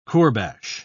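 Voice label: "kourbash", British English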